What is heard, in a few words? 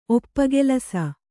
"ಒಪ್ಪಗೆಲಸ", Kannada